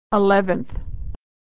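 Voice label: "eleventh", American English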